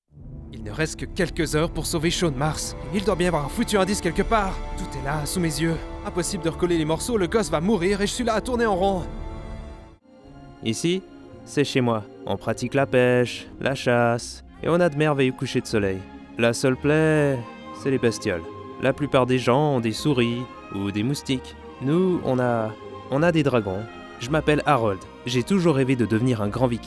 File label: Characters Video Games FR With Music